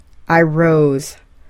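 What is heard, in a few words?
"I rose", English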